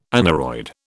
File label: "aneroid", British